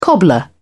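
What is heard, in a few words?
"cobbler", British English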